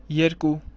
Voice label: "jerku", Armenian